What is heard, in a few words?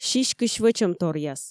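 "сиськысь вӧчӧмторъяс", Komi, female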